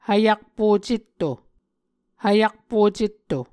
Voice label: "hvyakpo cetto", Muscogee

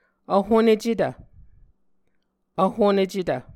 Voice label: "vhonecetv", muscogee

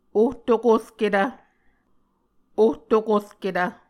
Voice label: "ohtokorketv", Muscogee

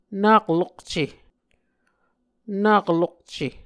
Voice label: "nak lokce", Muscogee

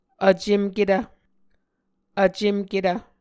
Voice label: "vcemketv", Muscogee

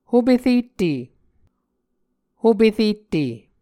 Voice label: "hoperette", Muscogee

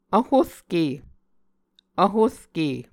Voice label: "vhorke", Muscogee

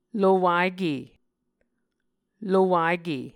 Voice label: "lowake", Muscogee

